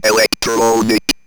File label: ROB VOX